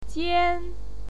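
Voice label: "jian", Mandarin Chinese pinyin, first tone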